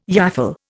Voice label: "yaffle", Indian